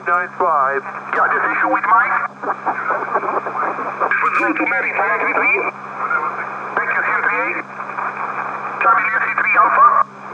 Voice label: booming on 10m SSB; n3a; wwdx